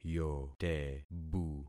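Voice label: "yotebu", concatenated syllables; Affixing experiment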